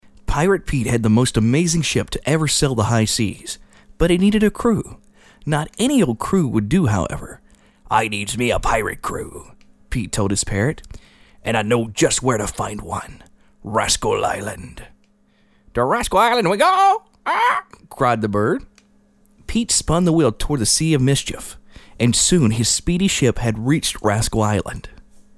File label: narration